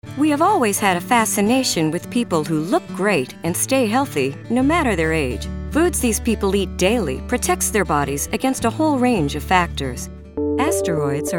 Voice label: english us and canada female corporate